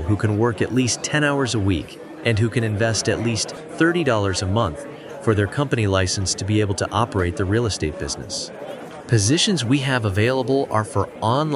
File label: Outgoing Phone Message